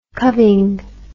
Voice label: "cubbing", english